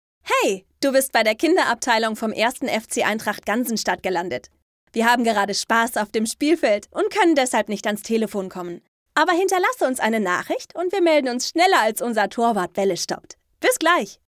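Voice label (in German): Telefonansage Fussballverein, Sprecherin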